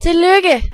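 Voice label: congratulation, Scottish Gaelic; gcompris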